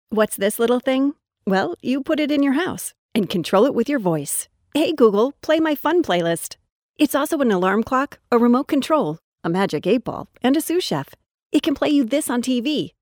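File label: English USA female, english american voice over talent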